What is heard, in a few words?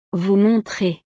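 "vous montrez", French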